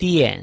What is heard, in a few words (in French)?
"dian4", chinois